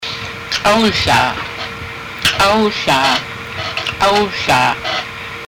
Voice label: sit down, haida; K'áw hláa